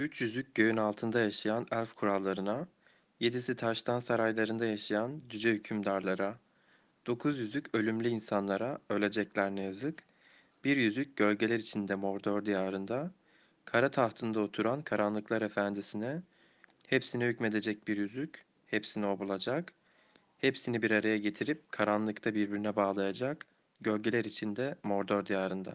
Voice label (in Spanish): Poema anillo Turco por kurdo